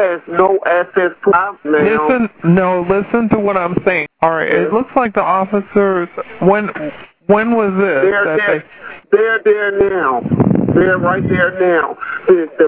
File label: 911 call; CMPD Releases Body Cam Video From December 1st Officer-Involved Shooting